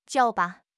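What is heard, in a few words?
"jiao3", Mandarin Chinese; HSK3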